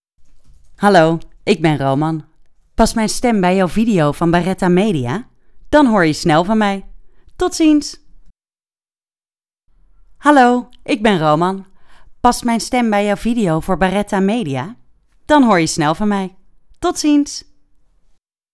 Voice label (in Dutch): voorstellen, voice-over; Baretta Media